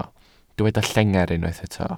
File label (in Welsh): "llengar" Welsh